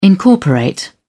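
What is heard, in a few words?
"incorporate", English, British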